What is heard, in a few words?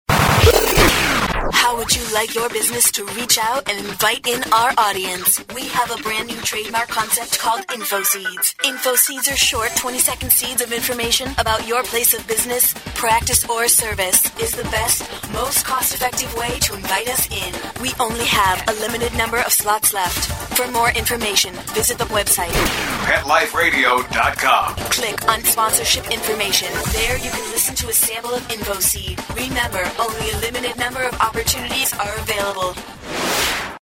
COMMERCIAL female; Infoseed; PetExpo